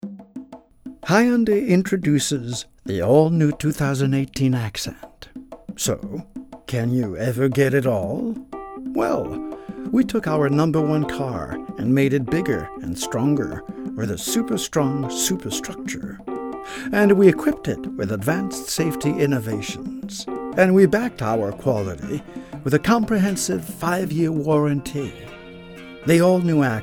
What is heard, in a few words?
male french canadian multiple accents commercial compilation